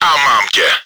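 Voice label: enemy killed by player, чешской озвучки; A mám tě!; Czech; Ты мой!; Уничтожение врага игроком; World of Tanks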